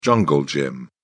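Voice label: "jungle gym", British English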